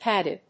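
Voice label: "padded", English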